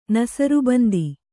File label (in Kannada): "ನಸರ್ಬಂದಿ", Kannada